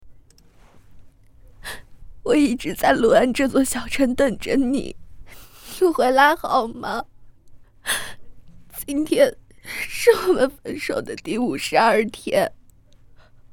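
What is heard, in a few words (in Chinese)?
旁白哭腔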